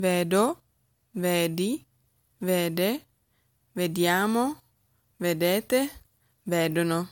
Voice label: vedere coniugazione, Italian

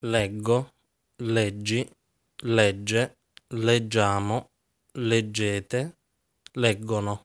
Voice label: Leggere coniugazione, Italian

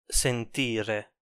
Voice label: "sentire", Italian

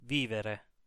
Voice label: "vivere", Italian

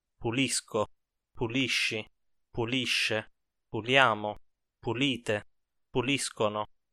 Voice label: pulire coniugazione, Italian

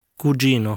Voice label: "Cugino", Italian

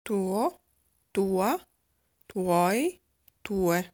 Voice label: Tuo, Italian; lesson 11 buono bello quello possesive adjectives family

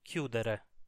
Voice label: "chiudere", Italian

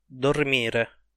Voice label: "dormire", Italian

